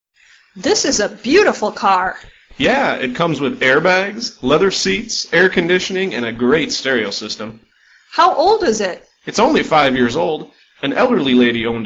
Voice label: Dialog